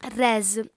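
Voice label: "res", female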